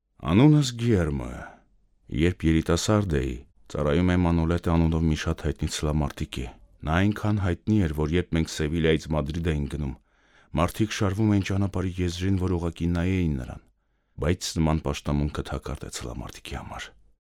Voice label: armenian voiceover locutor armenia

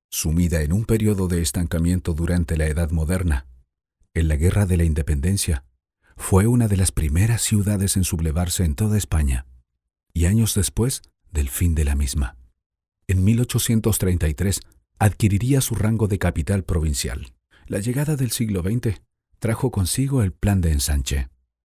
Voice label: locutor Chile Chilean voiceover; locutores chilenos

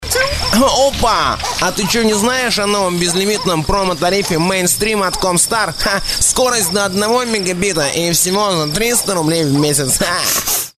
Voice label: russian voiceover locutor ruso

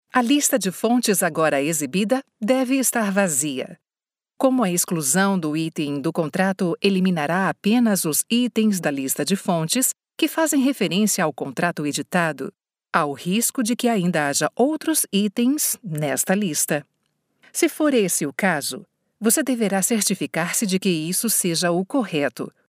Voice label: locutora brasil brazilian voiceover

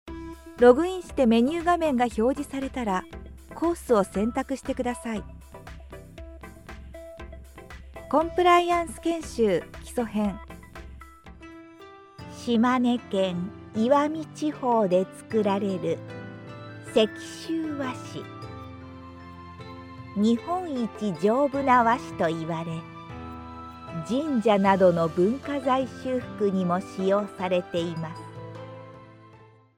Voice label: japanese voiceover locutora japon; locutora de Japón

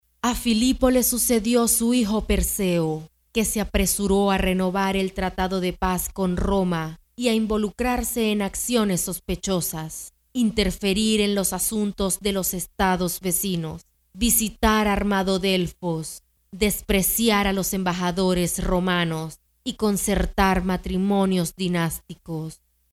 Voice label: locutora venezolana venezuelan female voiceover

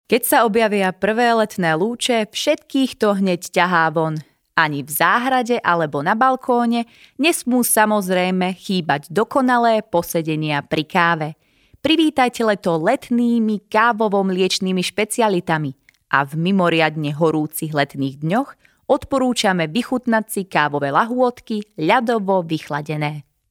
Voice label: slovak voiceover locutora eslovaca

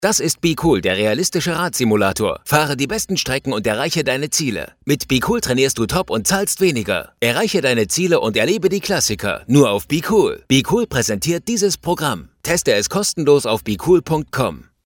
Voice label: locutor aleman German voiceover; dubbing

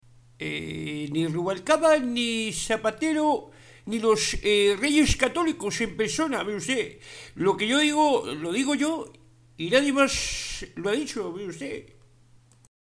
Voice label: locutor imitador spanish voiceover

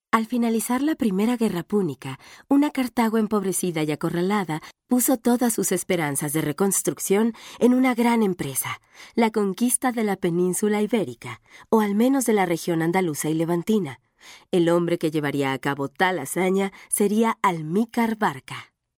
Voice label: mexican female voiceover locutora mejicana

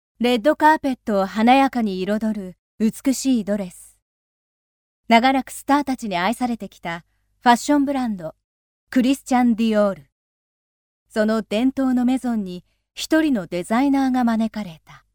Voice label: locutora japonesa japanese female voiceover

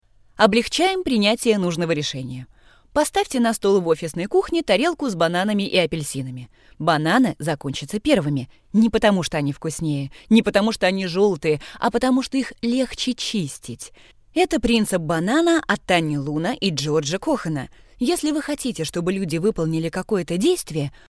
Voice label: locutora rusa russian voiceover

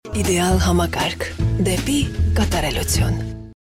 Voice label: armenian voiceover locutora armenia

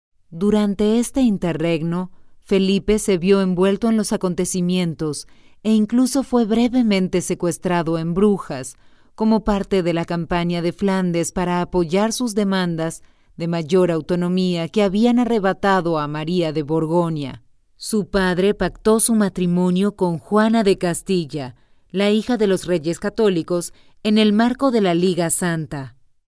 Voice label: locutora espanol neutro latin american voiceover; locutora de español neutro; American Spanish voice over

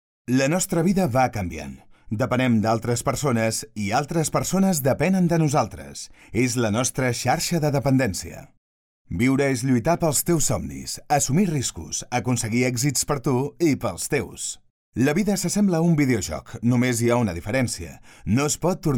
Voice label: catalonian voiceover locutor catalan

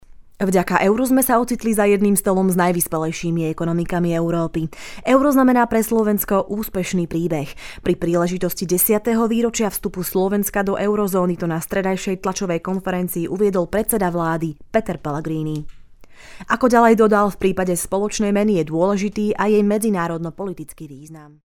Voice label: slovak voiceover locutora eslovaca

